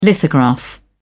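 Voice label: "lithograph", British English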